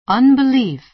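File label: "unbelief", American English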